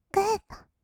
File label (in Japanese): "グッド", kawaii voice; ASMR; 1200 kawaii voice!!; 音ゲー